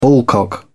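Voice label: "ballcock", British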